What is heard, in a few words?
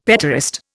"petaurist", Indian; Dictionary